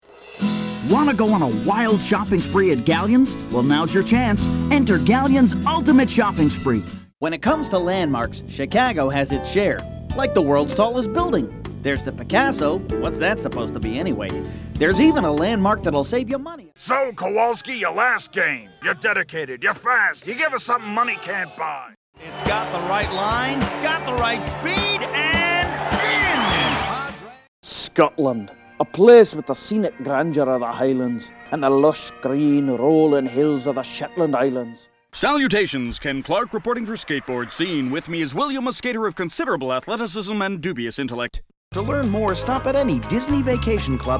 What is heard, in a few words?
Commercial